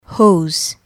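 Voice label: "hose" English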